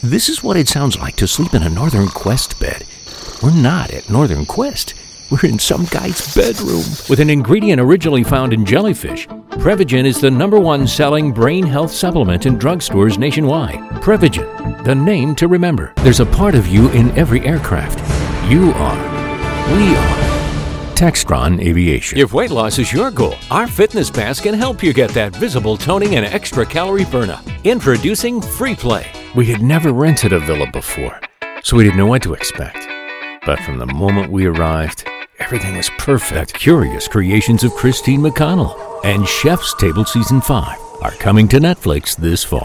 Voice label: Commercial, commercial voice overs